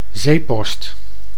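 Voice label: "zeepost", Dutch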